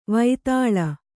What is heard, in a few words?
"ವಿತಾಳ", Kannada